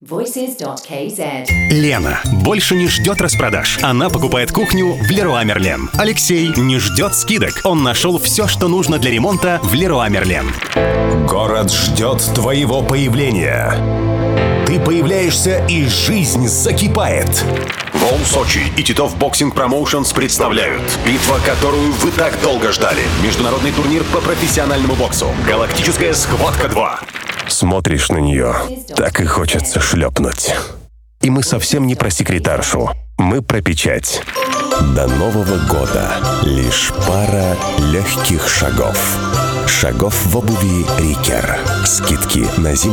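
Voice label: Russian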